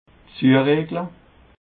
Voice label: "zuregle", alsace

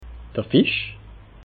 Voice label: "fisch", alsace

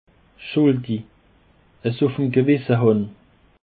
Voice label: "schuldig", alsace

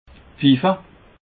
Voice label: "pfiffe", alsace

